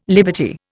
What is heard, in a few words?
"liberty", Indian